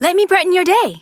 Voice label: Idle Barks, en; Aoi